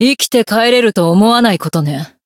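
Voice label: encounter, Japanese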